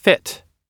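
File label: "fit", US English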